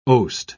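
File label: "oast", English, British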